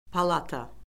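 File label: "palata", Azerbaijani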